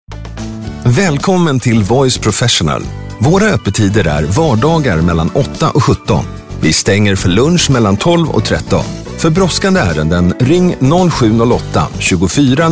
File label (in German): telefonansagen sprecher; Native Speaker für Telefonansagen